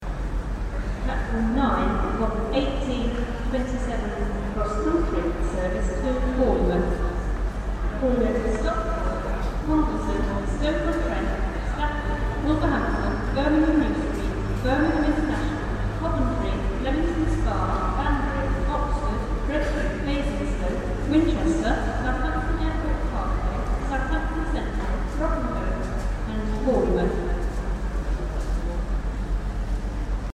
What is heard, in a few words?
station announcement manchester piccadilly